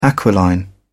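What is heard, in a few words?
"aquiline", British English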